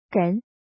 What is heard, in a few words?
"gen2", Chinese